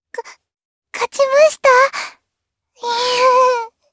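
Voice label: Victory, Japanese; Lavril; kingsraid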